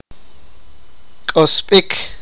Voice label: sipakapense; be born